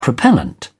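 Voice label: "propellant", British English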